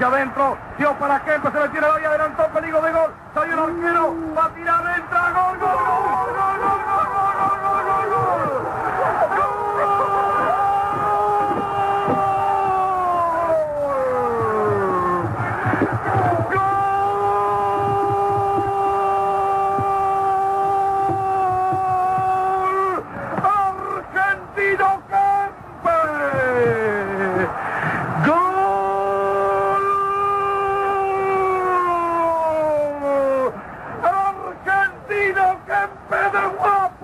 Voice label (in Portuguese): LOCUTOR ARGENTINO NARRA GOL; GOL DE KEMPES NA COPA DE 1978